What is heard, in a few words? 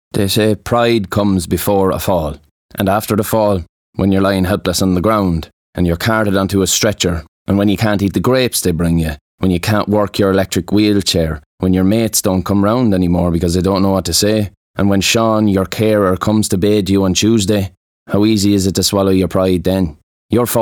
construction radio advert